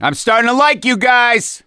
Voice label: hurrah, survivor voice; gambler

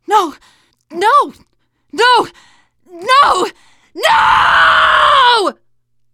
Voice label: grabbed by smoker, teengirl, voice; player; survivor

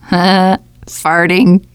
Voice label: gas tanks, teengirl, voice

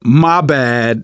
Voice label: sorry, survivor voice manager; Left 4 Dead 2; left4dead2 dlc2

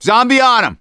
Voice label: hunter pounced, voice; Left 4 Dead 2; survivor; gambler

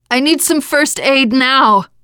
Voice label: going to die ask for heal, teen girl; player; survivor; voice

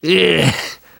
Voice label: reaction disgusted, survivor voice; namvet; Left 4 Dead 2; left4dead2 dlc2

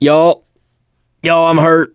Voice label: going to die, survivor voice mechanic

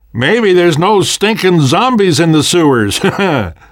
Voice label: world hospital, namvet, survivor voice

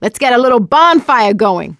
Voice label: incend ammo, voice; survivor; producer

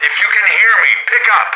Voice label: radio initial broadcast

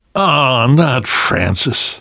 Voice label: grief biker, survivor voice namvet; Left 4 Dead 2; left4dead2 dlc3

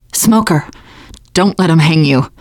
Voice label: heard smoker, teen girl; player; survivor; voice

